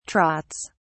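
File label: "trots", British English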